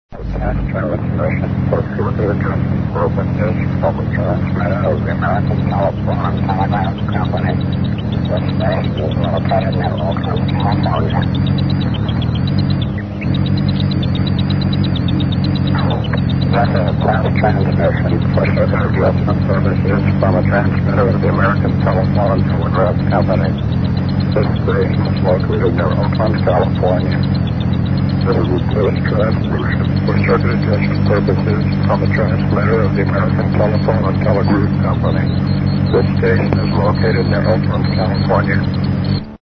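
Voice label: upper sideband; oakland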